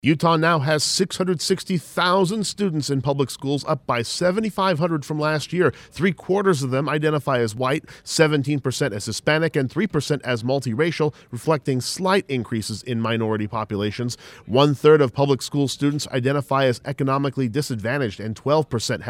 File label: SCHOOL ENROLLMENT VOICE PSA